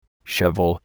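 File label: "shovel", English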